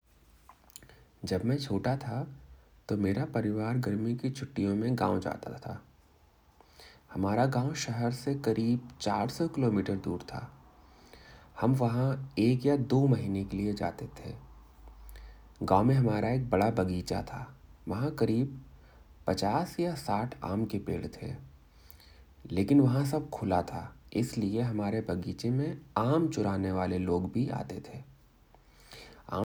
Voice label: garmi ki chutti, Hindi